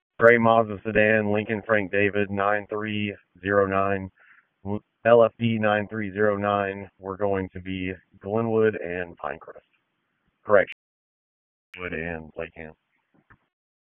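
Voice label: Police Southwest District Dispatch; Raleigh; wake; p25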